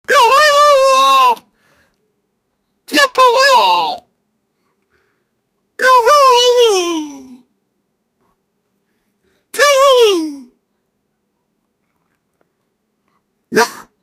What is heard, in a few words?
go go go go go, human voice